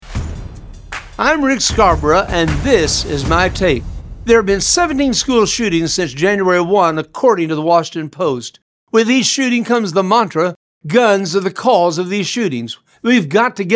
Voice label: Radio Spot